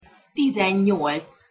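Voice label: "tizennyolc", Hungarian pronunciation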